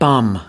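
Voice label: "bum", US English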